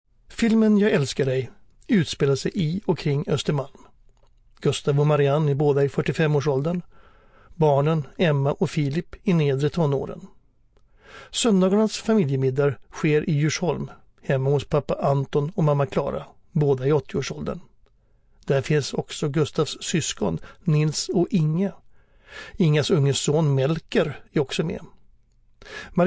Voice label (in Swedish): trailer; Jag alskar dig